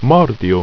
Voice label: "mardio", ido